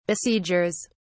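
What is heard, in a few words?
"besiegers", British English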